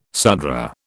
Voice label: "Sudra", British